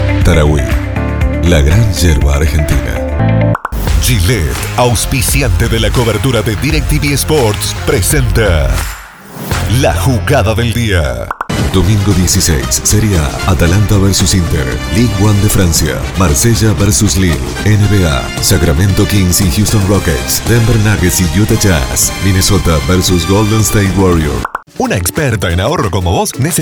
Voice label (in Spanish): rioplatense